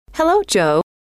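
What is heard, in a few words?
hello, female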